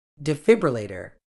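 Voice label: "defibrillator", British English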